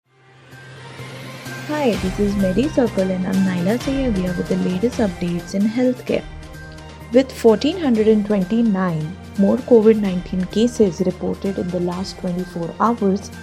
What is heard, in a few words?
english news